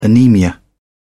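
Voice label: "anaemia", British English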